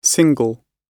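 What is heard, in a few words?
"single", British English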